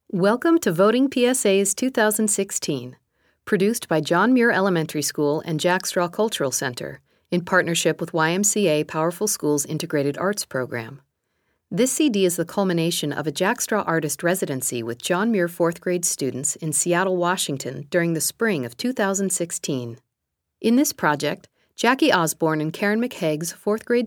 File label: Opening Narration; John Muir PSAs 2016: Voting